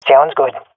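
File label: agree, swat female; vo; Counter Strike Model Pack; CS:GO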